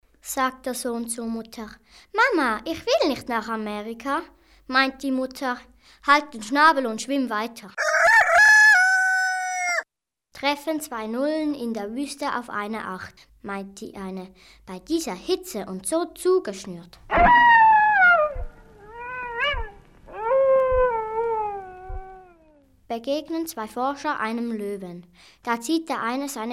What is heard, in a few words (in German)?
Witze normale Stimme